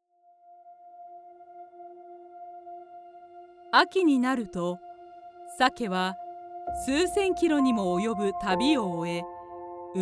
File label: Japanese Cinematic Documentary